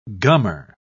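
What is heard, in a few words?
"gummer", British English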